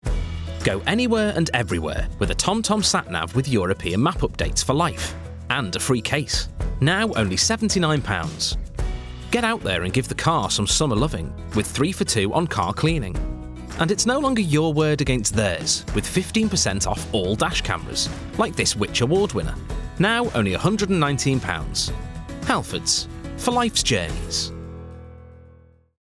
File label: male english natural wirral accent commercial; halfords